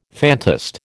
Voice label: "phantast", American

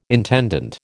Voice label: "intendant", American

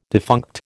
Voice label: "defunct", American

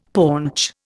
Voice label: "paunch", Indian; Dictionary

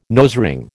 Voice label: "nosering", American

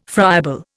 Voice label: "friable", Indian

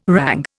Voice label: "rang", Indian

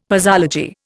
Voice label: "posology", Indian; Dictionary

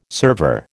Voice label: "server", American

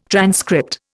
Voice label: "transcript", Indian

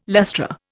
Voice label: "lustra", Indian

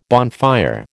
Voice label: "bonfire", American

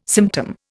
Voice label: "symptom", Indian

